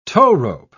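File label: "towrope", British English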